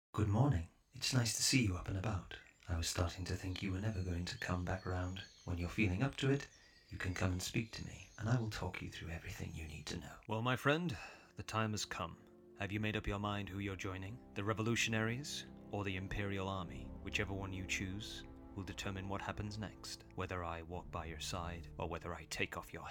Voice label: Video Game Voice